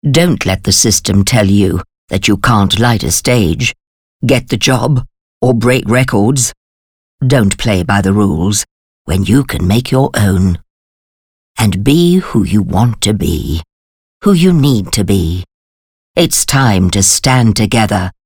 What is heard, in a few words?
English Old Lady Character